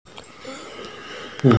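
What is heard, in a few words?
"cao3", Mandarin Chinese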